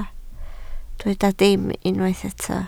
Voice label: "dim", Welsh